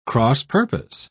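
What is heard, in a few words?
"cross-purpose", British English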